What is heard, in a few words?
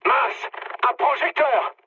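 searchlite, mood medium, French; War Thunder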